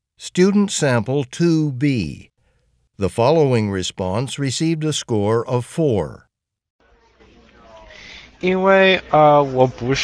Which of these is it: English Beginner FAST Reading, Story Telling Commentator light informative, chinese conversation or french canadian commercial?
chinese conversation